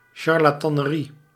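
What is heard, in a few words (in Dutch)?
"charlatanerie", Dutch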